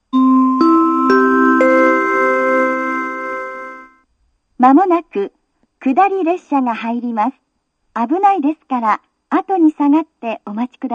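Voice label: platform 3 approaching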